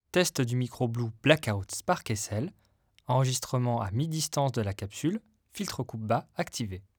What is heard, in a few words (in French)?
Voix Filtre bas active Mi distance; SparkSL